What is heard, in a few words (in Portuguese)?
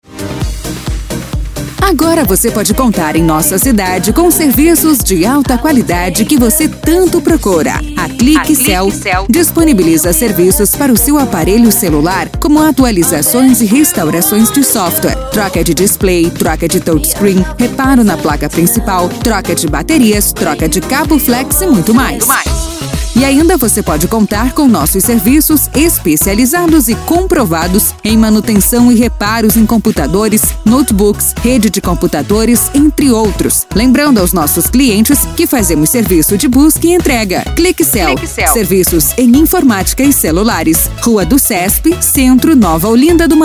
spot; studio89audio; click cell